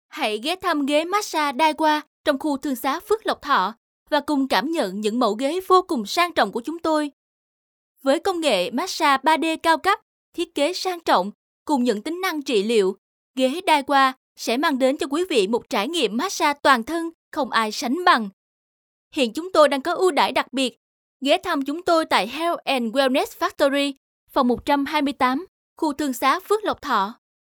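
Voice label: Vietnamese South, female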